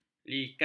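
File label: "likat", Malay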